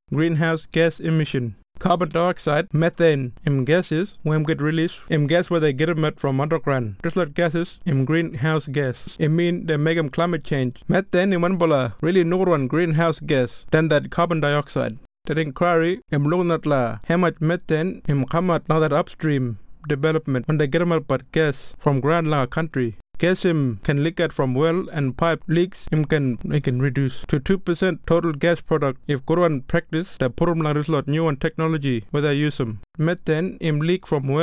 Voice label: Kriol; GREENHOUSE GAS EMISSIONS